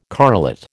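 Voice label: "correlate", American English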